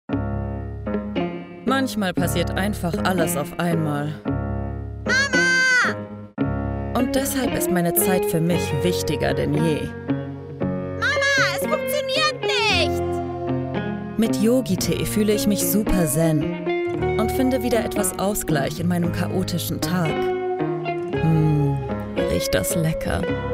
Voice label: German